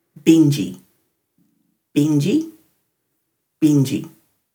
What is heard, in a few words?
"bindji", Dhurga